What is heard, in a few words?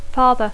"father", English